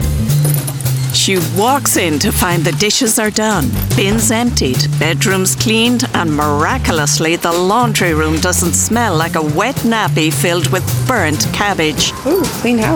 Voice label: Narration